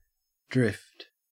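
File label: "drift", Australian English; pronunciations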